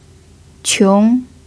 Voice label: "qiong2", chinese pinyin